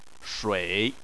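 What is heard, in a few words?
"shui", Chinese, third tone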